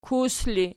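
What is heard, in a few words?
"kus", ergative, Archi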